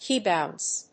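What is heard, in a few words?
"key bounce", English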